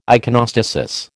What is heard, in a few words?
"iconostasis", American